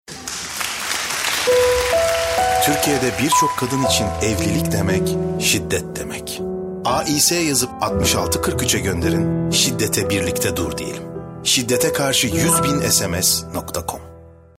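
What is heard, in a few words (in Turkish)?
Sıcak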